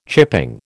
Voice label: "chipping", American